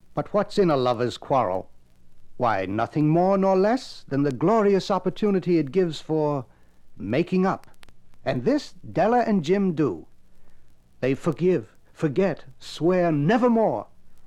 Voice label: Narration; "Narration" from Gift Of The Magi